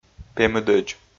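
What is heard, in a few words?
"пемыдӧдз", Komi, male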